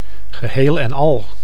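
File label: "geheel en al", Dutch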